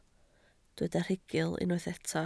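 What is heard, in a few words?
"rhugl" Welsh, spoken word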